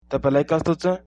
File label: how are you, nepali; तपाईंलाई कस्तो छ ?; tapāī lai kasto cha?